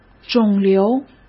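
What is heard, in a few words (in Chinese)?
"肿瘤", Mandarin Chinese; hsk; chinese-flashcards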